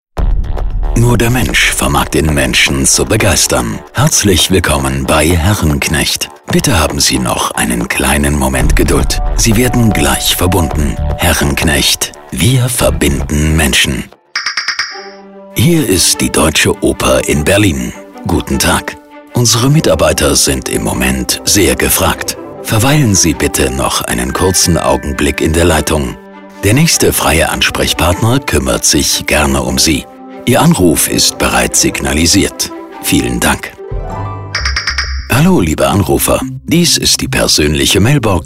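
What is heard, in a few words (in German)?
telefonansagen sprecher German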